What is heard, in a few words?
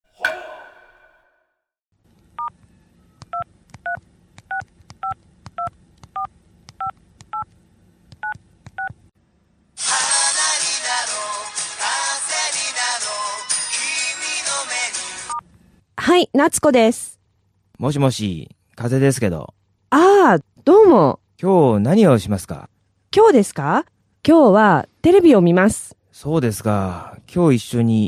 dialog; JapanesePod101; Beginner Season 1